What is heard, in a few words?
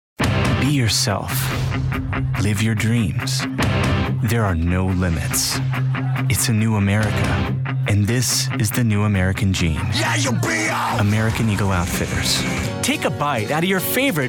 Commercial Male, Youthful, Firm, Beleivable